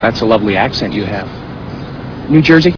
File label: accent